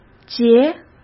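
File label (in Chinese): "劫", Mandarin Chinese; hsk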